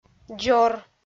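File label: "дзор", Komi, female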